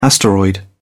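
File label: "asteroid", British English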